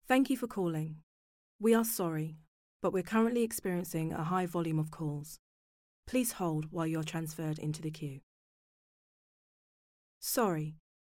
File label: IVR